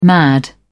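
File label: "mad", British English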